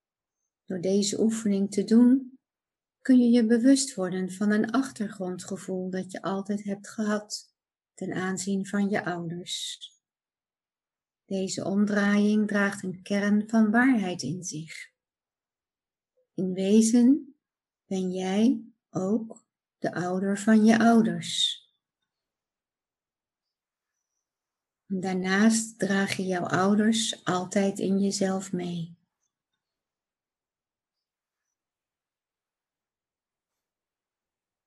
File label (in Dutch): Toelichting meditatie; Ouder Kind